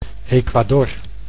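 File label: "Ecuador", Dutch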